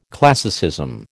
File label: "classicism", American